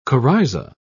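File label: "coryza", British English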